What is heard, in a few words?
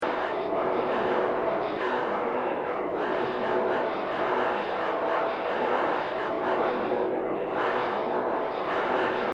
cave voices; asoundaday